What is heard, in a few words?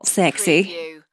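Female Says Sexy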